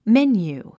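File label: "menu", English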